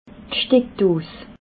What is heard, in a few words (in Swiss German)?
"steckdos", alsace